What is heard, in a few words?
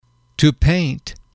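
"to paint", English, male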